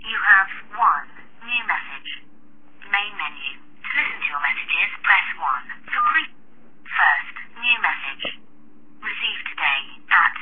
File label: answering machine; blizzard